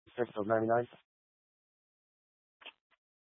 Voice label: Incident Tac 3, Scanner audio recording

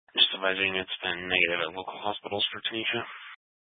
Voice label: Police Department Dispatch; scanner; Grand Junction

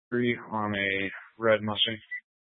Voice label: Sheriff Dispatch, scanner; Mesa County

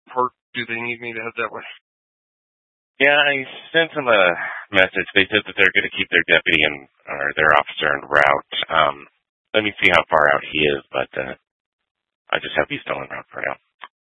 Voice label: Troop 2A Dispatch, scanner audio recording; Troop 2A Dispatch (Chaffee, Custer, Fremont, Lake, Park)

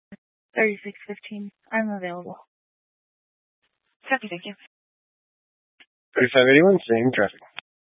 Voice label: Police Department Dispatch, scanner

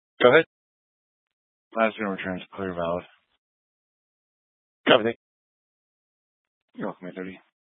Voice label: Police Department Dispatch, scanner

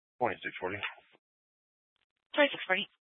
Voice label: Mesa County Sheriff Dispatch

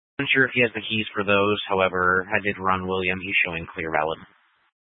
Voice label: Sheriff Dispatch, scanner; Mesa County

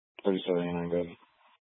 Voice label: Mesa County Sheriff Dispatch; scanner

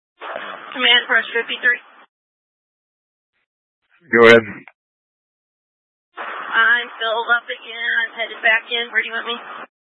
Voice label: Incident Tac 1, scanner

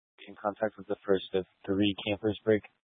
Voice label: Police Department Dispatch, scanner

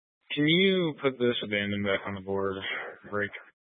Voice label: Sheriff Dispatch; Mesa County

